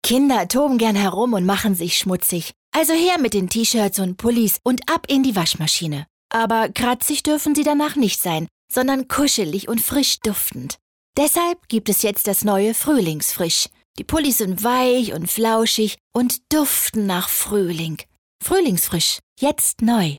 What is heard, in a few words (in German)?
Werbe take Sprachprobe